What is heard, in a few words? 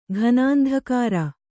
"ಘನಾಂಧಕಾರ", Kannada